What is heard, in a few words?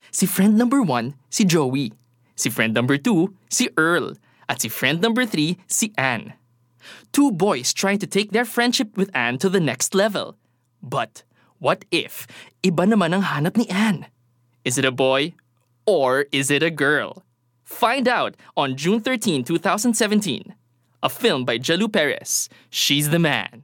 Taglish (Warm, Enthusiastic)